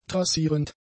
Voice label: "trassierend", German; adjectives

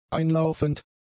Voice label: "einlaufend", German; adjectives

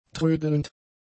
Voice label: "trödelnd", German; adjectives

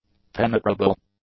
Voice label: "penetrable", English; adjectives

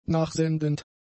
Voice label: "nachsendend", German; adjectives

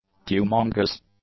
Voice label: "humongous", English; adjectives

